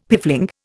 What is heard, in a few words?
"piffling", Indian; Dictionary